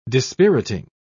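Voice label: "dispiriting", English, British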